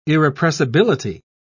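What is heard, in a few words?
"irrepressibility", British English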